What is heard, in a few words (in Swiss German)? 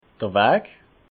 "waj", alsace